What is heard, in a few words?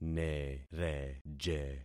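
"nereje", concatenated syllables; Affixing experiment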